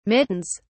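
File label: "mittens", British English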